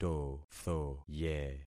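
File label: "tothoye", concatenated syllables; Affixing experiment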